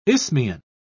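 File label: "isthmian", British English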